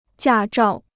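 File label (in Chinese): "驾照" Chinese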